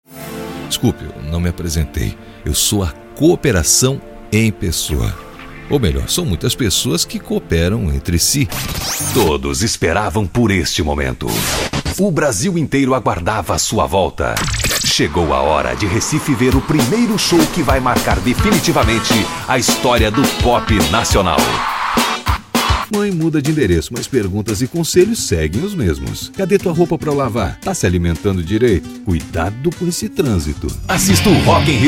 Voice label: portuguese brazilian commercial